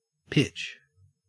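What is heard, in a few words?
"pitch", Australian English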